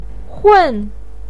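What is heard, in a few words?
"hun" fourth tone, chinese, voice pinyin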